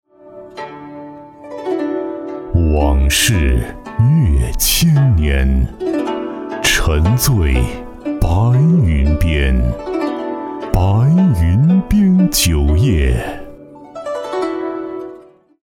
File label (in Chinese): 男 广告 酒 高端 韵味 深沉; 白云边酒; 酒类广告案例